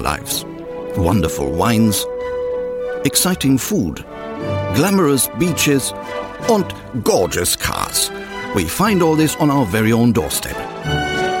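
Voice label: German English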